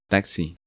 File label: "Taxi", Spanish